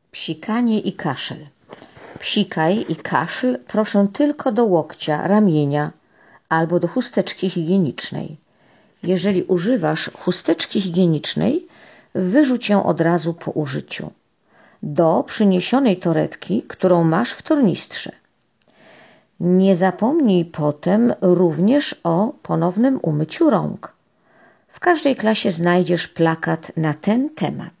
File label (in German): Polnisch